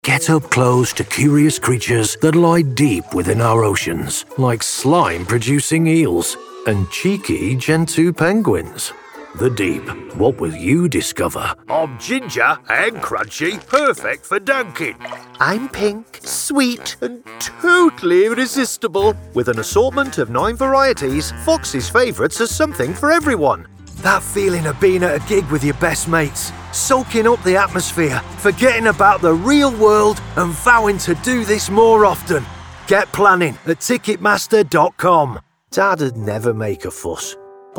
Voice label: Commercial Showreel